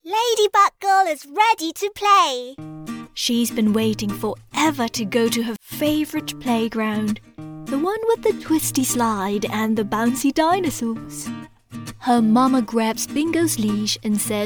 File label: E-Learning Pre-school